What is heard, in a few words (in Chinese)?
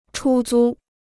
"出租", Chinese